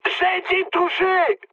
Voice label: aircraft damaged mood high, speech fr